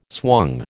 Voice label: "swung", American